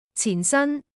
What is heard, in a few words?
"cin4 san1", cantonese